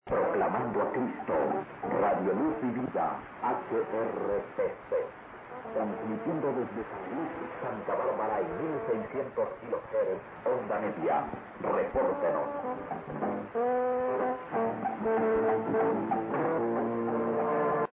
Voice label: 3250, Honduras; HRPC; R Luz y Vida